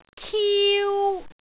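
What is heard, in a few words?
"kiu1", Cantonese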